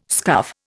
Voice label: "scuff", Indian